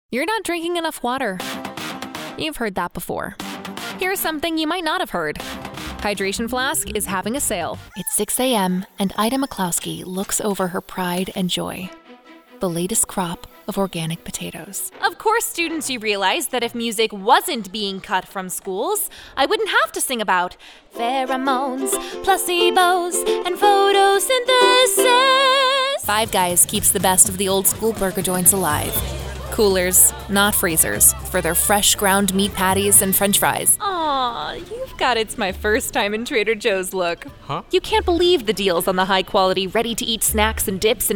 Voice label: COMMERCIAL